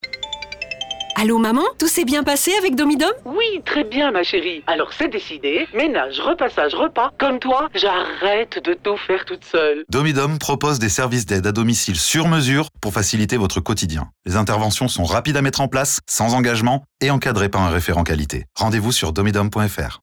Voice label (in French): spot radio; RTL 2021